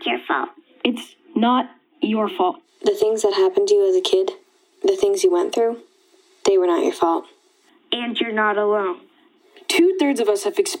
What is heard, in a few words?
Childhood Calling English